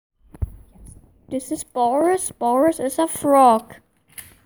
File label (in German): Sprachmemo